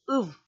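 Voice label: "ыв", Komi, female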